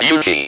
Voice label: speak and spell "BEAUTY"; WORDS

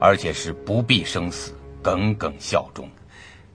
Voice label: chinese male vox; SPOKEN WORD; kongming lu su

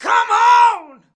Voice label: diva "cmon", cheesy divas

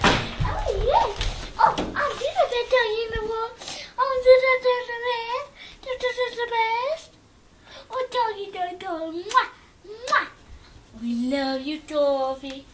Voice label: doggie talk and kisses; Sound FX Real Life

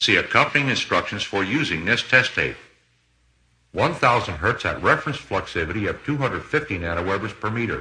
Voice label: see instructions for test tape, male spoken word; MALE MISC

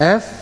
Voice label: "f", male British; Alphabet

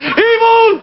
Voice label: "evil", male; MALE MISC

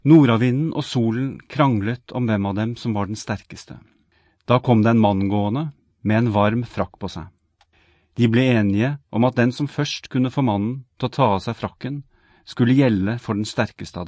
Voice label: male spoken word norwegian; MALE MISC

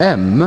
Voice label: "m", male British; Alphabet

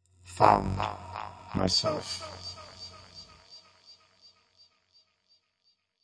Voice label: processed male vox poetry bits; Robotic or Stuttery or Spooky

